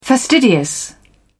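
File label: "fastidious", British English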